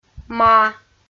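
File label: "маа", Komi, female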